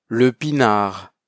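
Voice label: "le pinard", French pronunciation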